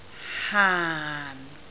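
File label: "haan4", Cantonese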